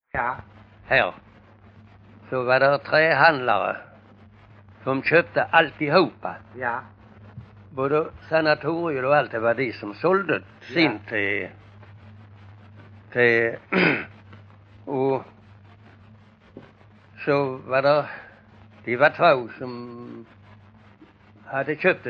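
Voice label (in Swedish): Bosjökloster Skåne, dialekter; Folkminnen; Svenska sagor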